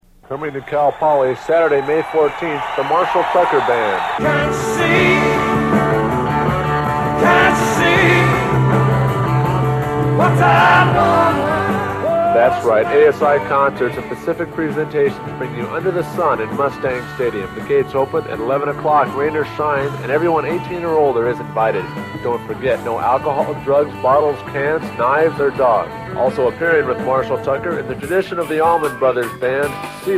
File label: promo